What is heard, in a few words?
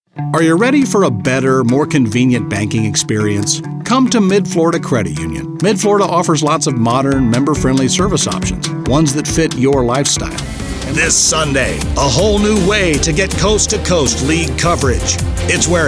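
commercial, Voiceover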